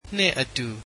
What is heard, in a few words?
"with", Burmese